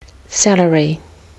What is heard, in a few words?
"salary", Kiwi English